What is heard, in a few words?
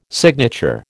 "signature", American